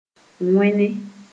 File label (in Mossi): "wẽne", Moore